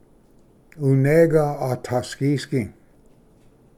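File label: "unega atasgisgi", Cherokee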